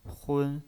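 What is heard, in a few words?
"hun", first tone, pinyin voice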